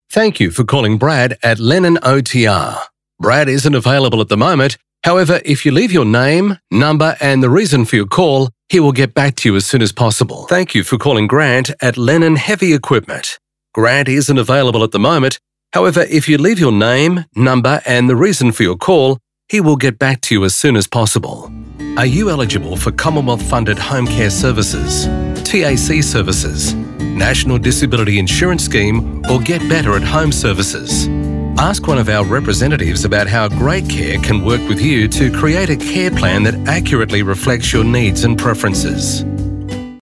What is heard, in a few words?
AU PHONE